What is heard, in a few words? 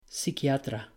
"pisquiatra", Spanish